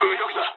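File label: right wing mood low, speech japanese; War Thunder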